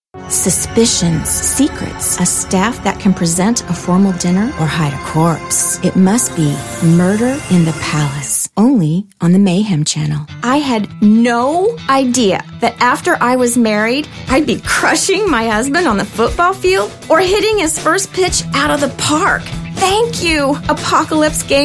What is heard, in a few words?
Commercial